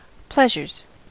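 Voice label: "pleasures", American English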